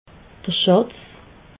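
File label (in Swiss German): "schatz", Alsatian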